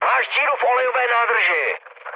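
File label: damaged oil, Czech, mood high; War Thunder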